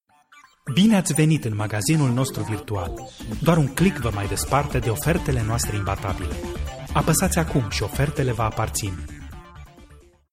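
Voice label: Romanian, male, commercial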